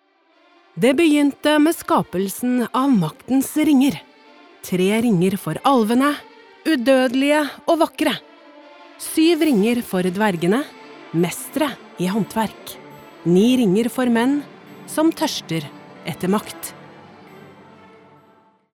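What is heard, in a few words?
Norwegian movie trailer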